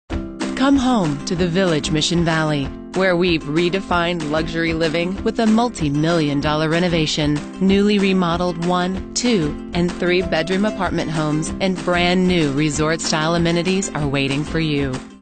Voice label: Luxury, Commercial